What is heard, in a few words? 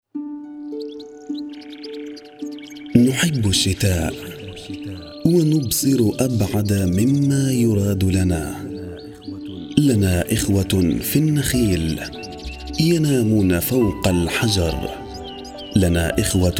arabic others